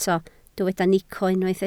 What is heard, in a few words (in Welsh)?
"nico", Welsh